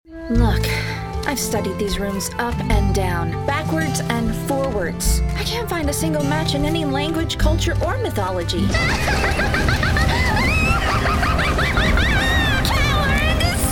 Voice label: Character